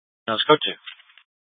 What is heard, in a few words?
Fire Departments Mesa Simulcast; TOPAZ